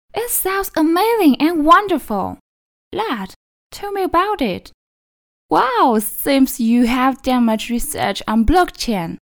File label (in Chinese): 明媚英语角色动画角色